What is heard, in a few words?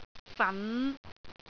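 "fan5", Cantonese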